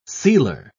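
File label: "sealer", British English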